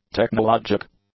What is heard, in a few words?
"technologic", English; adjectives